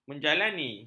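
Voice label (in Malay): "menjalani", Malay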